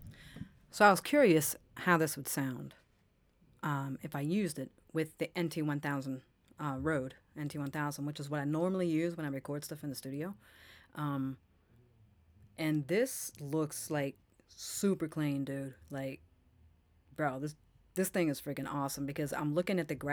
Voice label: Talking RE-20 Post switch flick